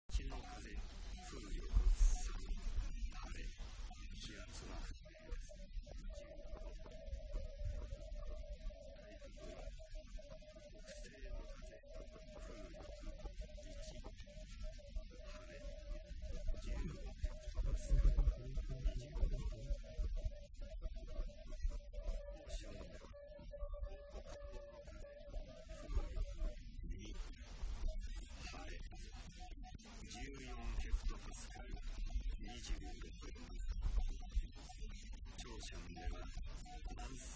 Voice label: QSO 6 meters